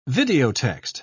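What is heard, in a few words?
"videotext", British English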